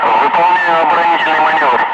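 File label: Russian speech, Ka-50 Wingman; Messages engaged defensive; DCS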